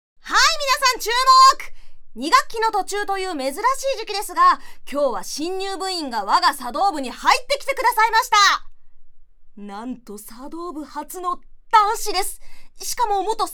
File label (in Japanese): キャラクター女子高生